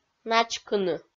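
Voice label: "начкыны", Komi, female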